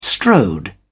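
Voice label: "strode", British English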